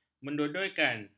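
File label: "mendodoikan", Malay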